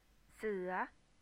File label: "sueh" rising tone, Thai